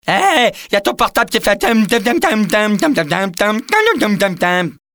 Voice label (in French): sonnerie, Y'a ton portable qui fait; Jamel Debouze